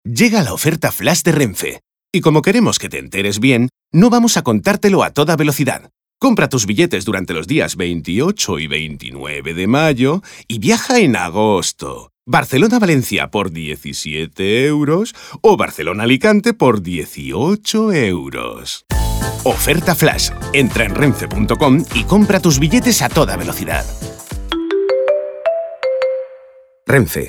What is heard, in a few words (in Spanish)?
Cuña; Renfe